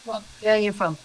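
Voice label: voice acting, es, female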